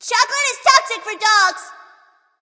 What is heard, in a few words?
taunt directed; cupid; fenrir